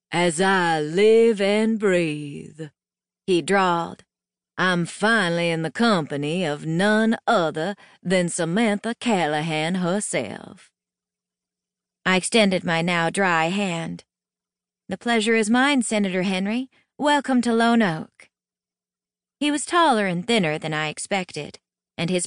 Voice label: Light Southern accent and old money; Felicity Munroe